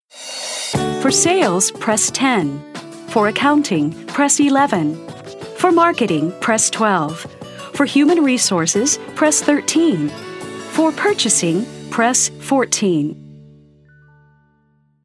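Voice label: English US F IVR, female, American; voice over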